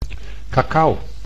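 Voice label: "cacao", Dutch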